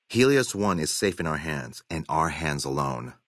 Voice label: male adult greeting